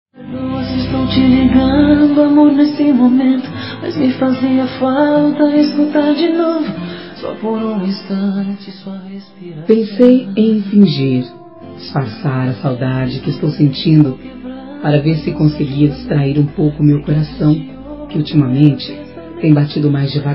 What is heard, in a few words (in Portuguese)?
Tele Mensagem Saudades Romantica (Voz Feminina); COMEMORATIVAS